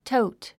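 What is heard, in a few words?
"taut", English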